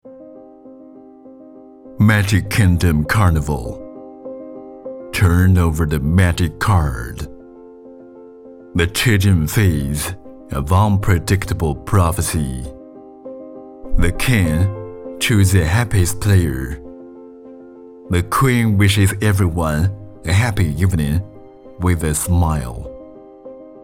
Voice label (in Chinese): 广告片 低沉品质类, 男 英文